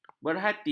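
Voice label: "berhati", Malay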